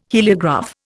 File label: "heliograph", Indian